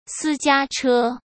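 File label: "私家车", Mandarin Chinese; chinese flashcards; hsk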